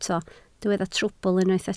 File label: "trwbl", Welsh